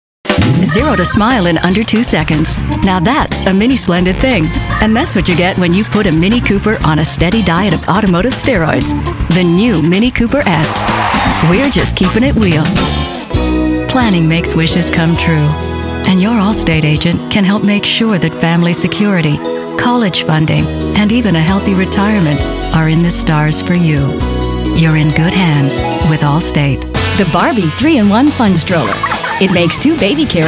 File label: COMMERCIALS